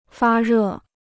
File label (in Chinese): "发热", Chinese